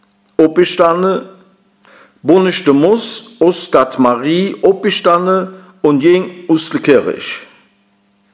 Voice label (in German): "opjestanne" im Satz; heimatfreunde weibern